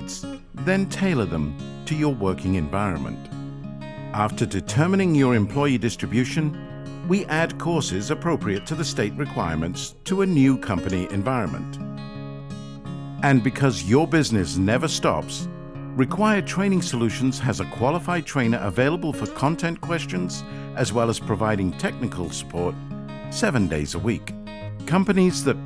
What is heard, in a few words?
male British voiceover corporate private client